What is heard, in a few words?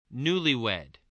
"newlywed", voice